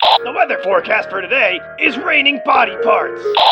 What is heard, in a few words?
team radio; tf2